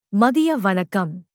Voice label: Tamil Good afternoon; Greetings